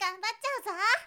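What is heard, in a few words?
"ganbattyauzo", Japanese, girl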